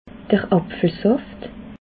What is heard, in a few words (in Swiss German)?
"apfelsaft", alsace